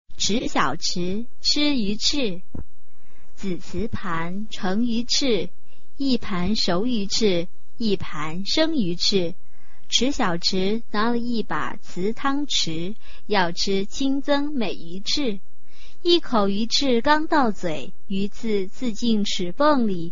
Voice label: chinese tongue twister